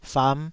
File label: "fam5", taiwanese syllables